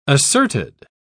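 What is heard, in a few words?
"asserted", British English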